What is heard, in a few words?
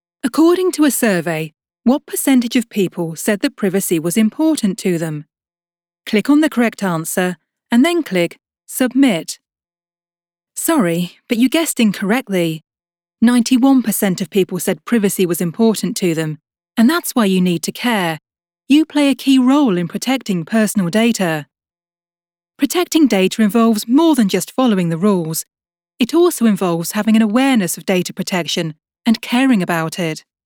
elearning